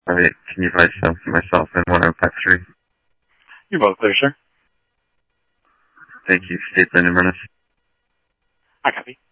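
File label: Mesa Police Department, scanner audio trunk; Mesa Simulcast; MESA PD 3 Red Mt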